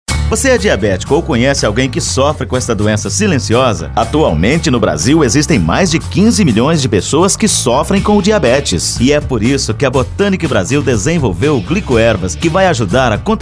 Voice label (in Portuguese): locutor spot; gilicoervas